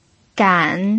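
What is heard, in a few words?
"gan", Mandarin Chinese, third tone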